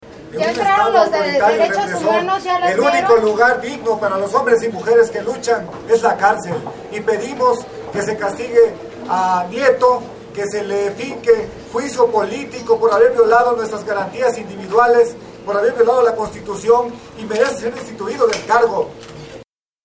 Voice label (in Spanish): hombre; presas del penal de santiaguito